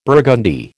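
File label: "burgundy", American English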